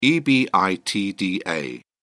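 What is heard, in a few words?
"ebitda", British English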